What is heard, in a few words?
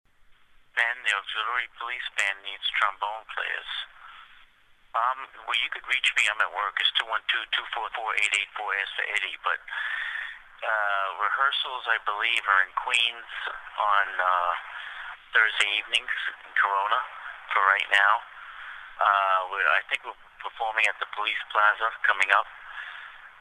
voicemail